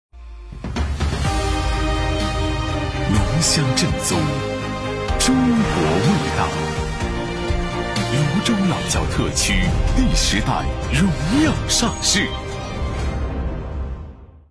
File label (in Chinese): 国语男 广告 酒类; 泸州老窖特曲第十代上市